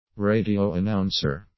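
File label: "radio announcer", English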